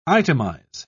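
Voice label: "itemise", British English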